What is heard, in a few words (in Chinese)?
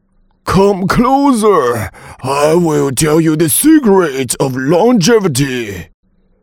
英男 角色 寿星